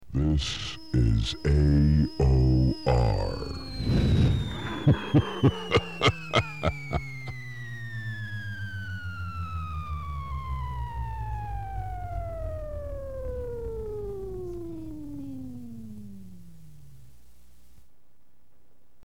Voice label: slow voice bumper